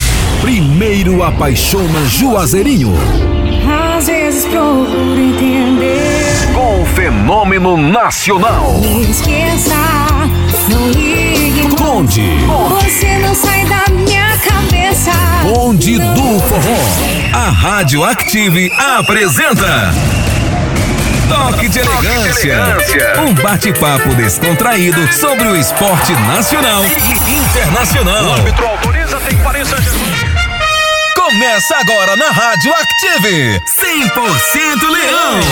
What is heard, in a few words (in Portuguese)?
locucao brasil